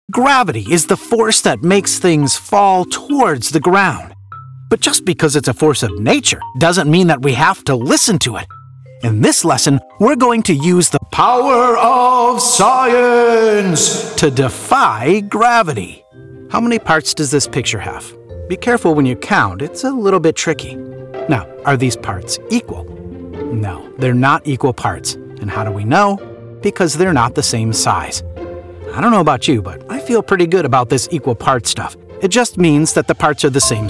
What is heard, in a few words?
Kids E-learning